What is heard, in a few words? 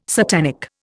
"satanic", Indian